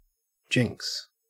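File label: "jinx", Australian English; pronunciations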